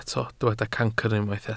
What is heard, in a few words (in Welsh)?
"cancr", Welsh